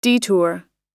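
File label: "detour", American English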